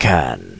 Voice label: "can", word